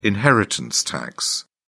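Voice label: "inheritance tax", British English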